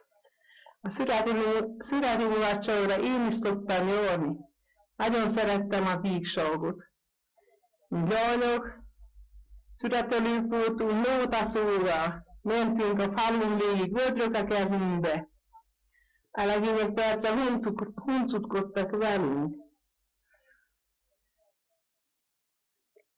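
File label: dialect collection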